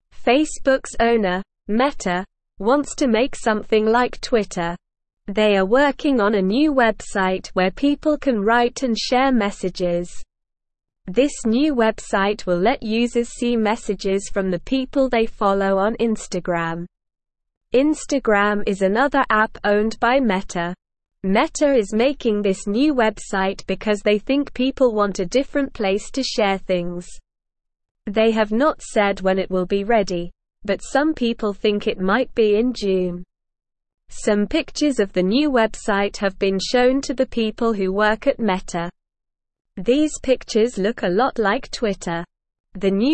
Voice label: English Newsroom Beginner SLOW Reading; Meta Makes New Website Like Twitter